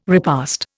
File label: "repast", Indian